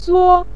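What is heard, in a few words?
"suo" neutral tone, Mandarin Chinese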